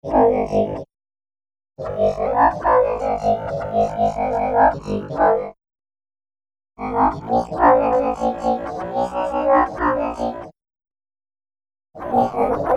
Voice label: alien